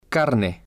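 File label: "carne", male, spanish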